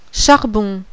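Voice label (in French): "CHARBON", French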